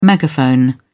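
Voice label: "megaphone", British English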